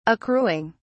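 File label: "accruing", British English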